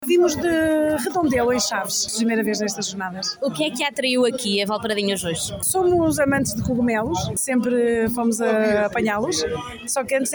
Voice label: vox pop